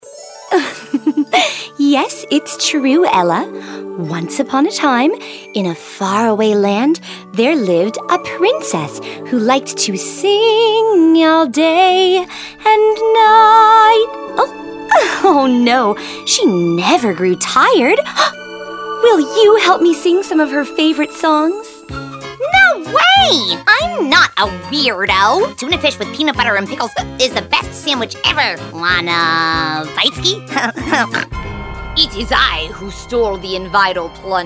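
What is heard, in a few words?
Character Female; TaDa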